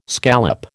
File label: "scallop", American